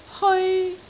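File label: "heoi1", Cantonese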